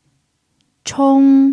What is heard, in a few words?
"chong1", pinyin